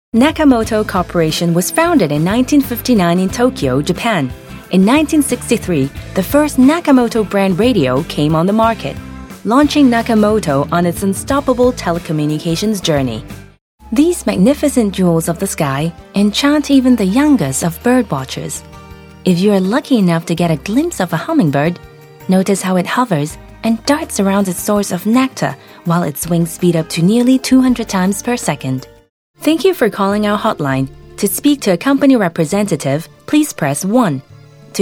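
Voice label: British, narration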